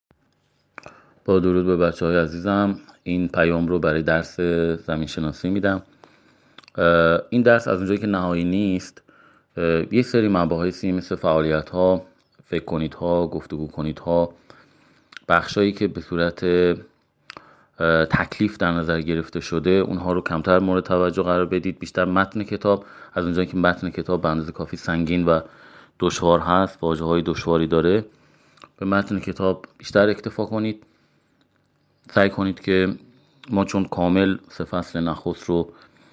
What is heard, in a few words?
voice msg